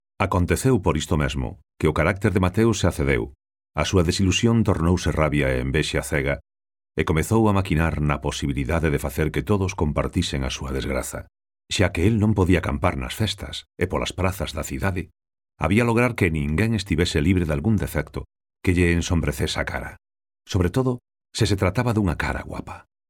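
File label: Galician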